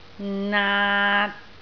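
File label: "naat6", Cantonese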